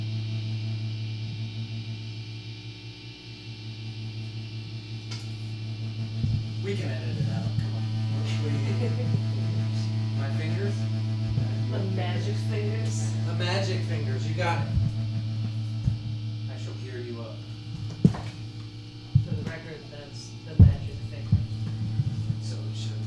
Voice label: between track chatter petersburg